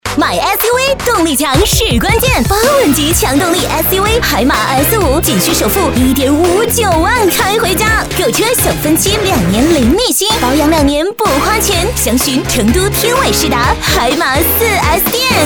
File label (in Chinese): 女, 广告 年轻激情 汽车类